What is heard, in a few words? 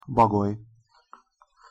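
"bagoly" pronunciation Hungarian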